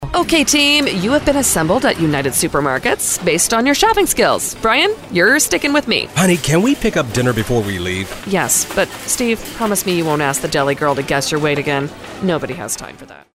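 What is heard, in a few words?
English US, female, commercial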